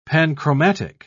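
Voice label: "panchromatic", British English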